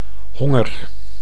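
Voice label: "honger", Dutch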